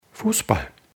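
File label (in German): "fussball", German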